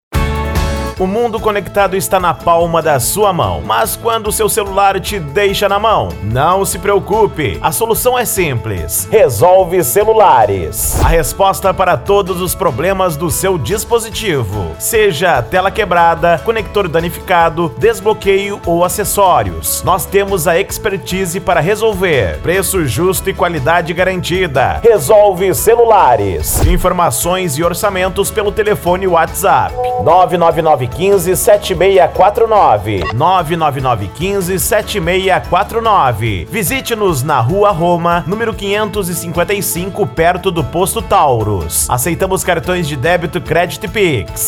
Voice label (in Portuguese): Locutor; Resolve Celulares Dourados MS